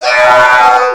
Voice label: Male Die Robot; gamerip; Mars Sortie